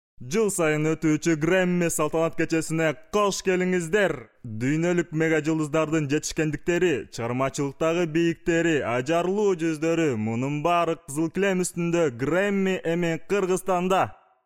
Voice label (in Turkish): Kirgizca Erkek